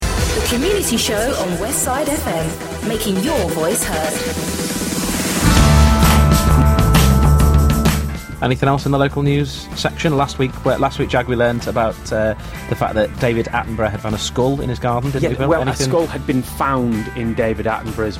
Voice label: male english natural wirral accent radio continuity; westside radio; Devine Voices